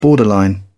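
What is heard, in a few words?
"borderline", British English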